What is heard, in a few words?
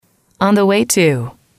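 on the way to, female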